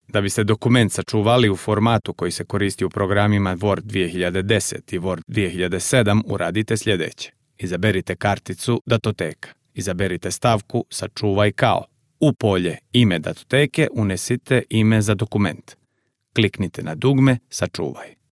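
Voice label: Montenegrin elearning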